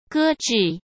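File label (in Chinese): "搁置", Mandarin Chinese; chinese-flashcards; hsk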